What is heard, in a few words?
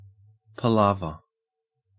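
"palaver", Australian English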